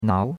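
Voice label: "nao2", Chinese; Cours-de-Chinois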